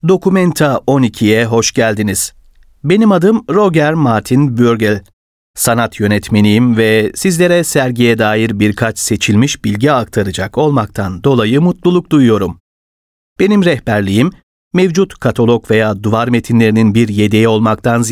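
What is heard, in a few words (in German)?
Audioführung türkisch; documenta 2007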